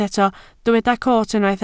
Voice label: "coot", Welsh